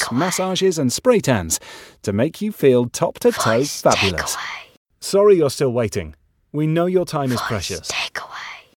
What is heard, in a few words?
Beauty Salons Smooth Male